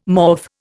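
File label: "morph", Indian English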